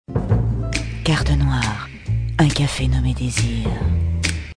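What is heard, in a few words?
Deep Sexy, Commercials, French; Carte Noire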